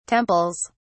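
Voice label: "temples", British English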